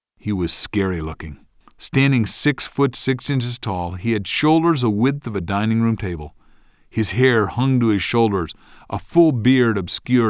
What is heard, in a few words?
original, male clean